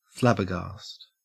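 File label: "flabbergast", Australian English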